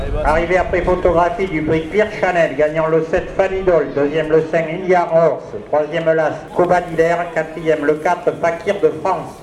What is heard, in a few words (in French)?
Annonce Arrivee; Annonce de l'arrivée définitive